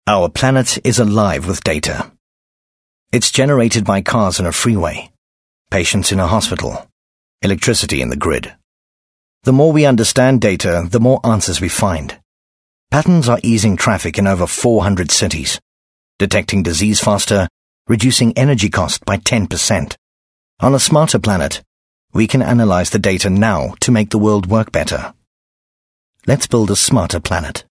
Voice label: English Corporate

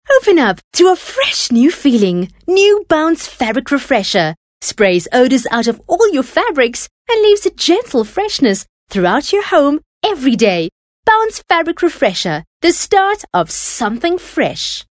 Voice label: English Bright

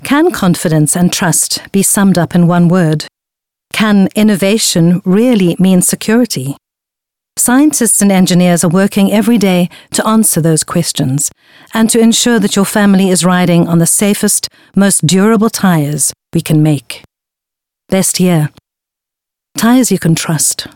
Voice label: English Corporate

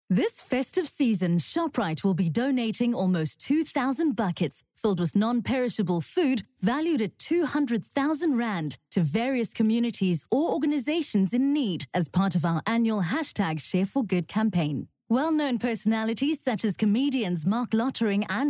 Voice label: English conversational

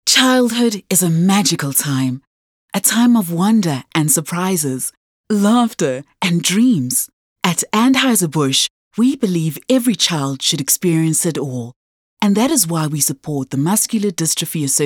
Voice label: English soft sell

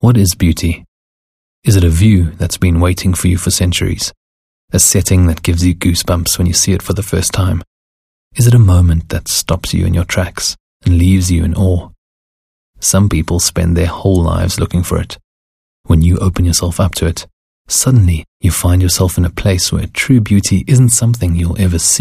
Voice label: English Soft Poetic

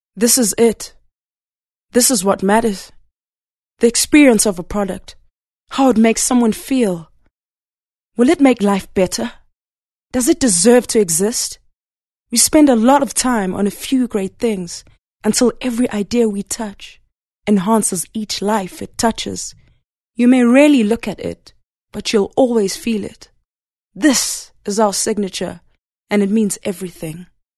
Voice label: English Dramatic

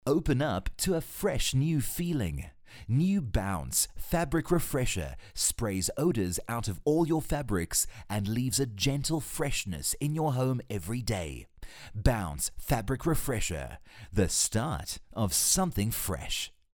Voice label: English Bright Bouncy

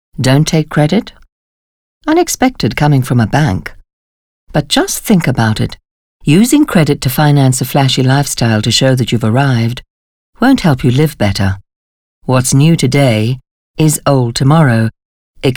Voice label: English Corporate

